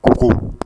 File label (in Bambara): "kogo", Bambara